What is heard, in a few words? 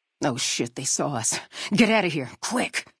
female adult take water; FNV